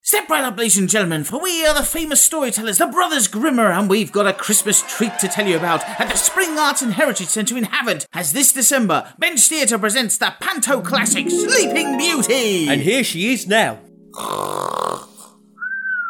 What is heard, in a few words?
radio ad